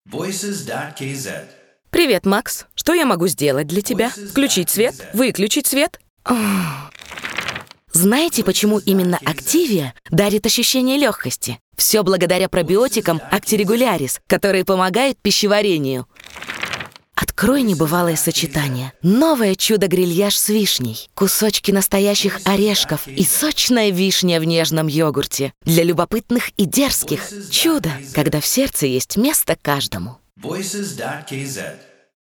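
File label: russian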